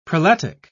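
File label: "prelatic", English, British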